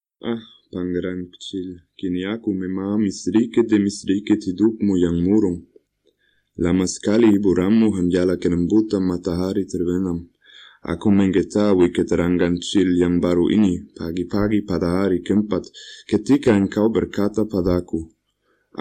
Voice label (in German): Indonesisch Bali